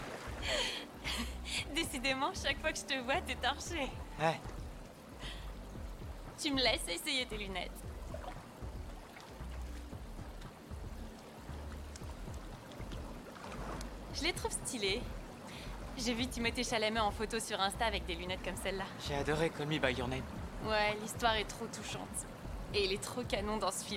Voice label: female French dubbing; metal lord; devine voices